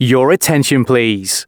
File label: announcements male